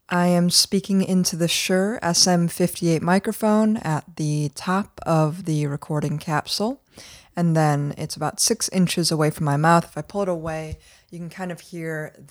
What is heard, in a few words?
Shure SM58